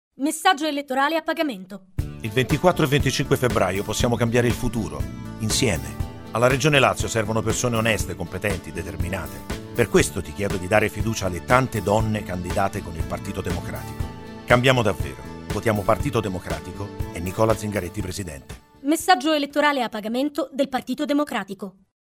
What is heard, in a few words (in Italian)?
spot radio donne